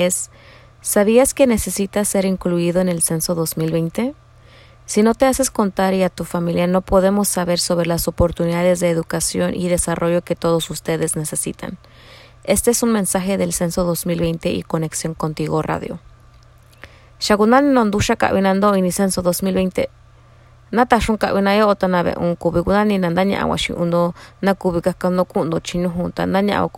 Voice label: Census Mixteco Bajo; census 2020